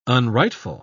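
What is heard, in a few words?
"unrightful", English, British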